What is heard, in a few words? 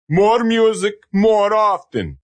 More Music Often, Male; Radio Sound Effects; Sound Effects:Voice; VoiceBox